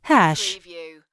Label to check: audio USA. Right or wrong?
Wrong